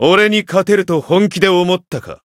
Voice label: win, jp

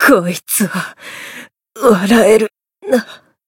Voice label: retire, jp; minalca

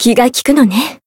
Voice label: Voice healed, jp; hisumena

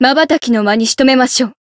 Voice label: chant, jp

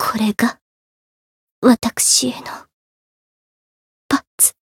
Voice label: Voice jp

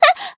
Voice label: damaged, jp; azami

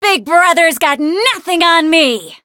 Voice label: variable chant

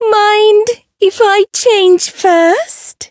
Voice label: Voice variable chant; hoozuki

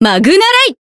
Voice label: Voice jp

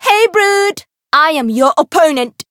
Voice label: Voice variable chant

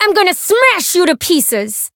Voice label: Voice chant

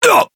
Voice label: Voice damaged

